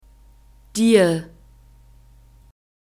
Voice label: "dir", German

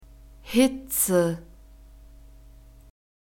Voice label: "hitze", German

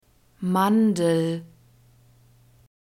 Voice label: "mandel", german; fruits

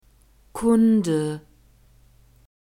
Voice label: "kunde", german; n declension

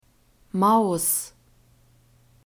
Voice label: "maus", german

